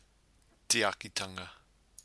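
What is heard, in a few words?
"tiakitanga", Māori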